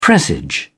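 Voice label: "presage", British English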